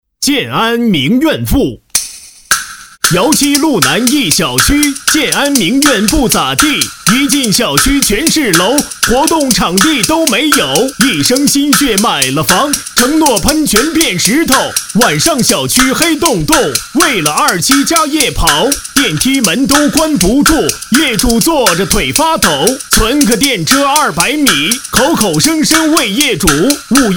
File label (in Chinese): 男 戏曲 快板 物业维权类